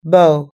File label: "bow", us-english